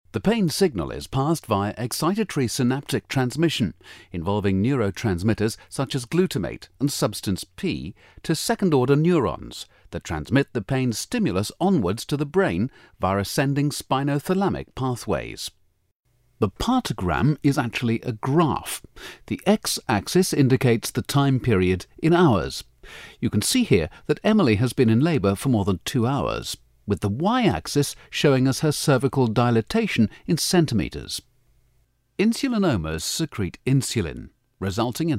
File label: eLearning British Male